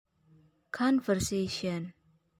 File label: Conversation